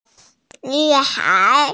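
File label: "nv3hai2", Mandarin Chinese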